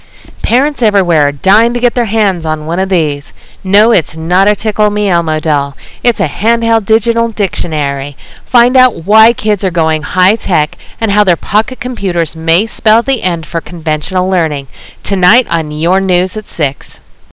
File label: News